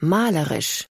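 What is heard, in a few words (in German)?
"malerisch", German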